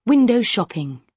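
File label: "window shoppin", British English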